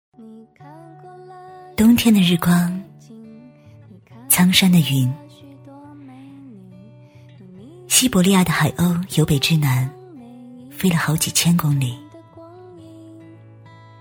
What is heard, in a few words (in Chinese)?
女 走心微电影 内心独白 喃喃磁性; 走心旁白